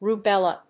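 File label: "rubella", English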